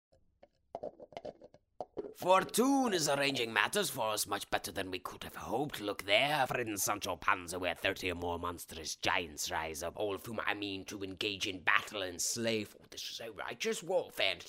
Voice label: Wind Power Commercial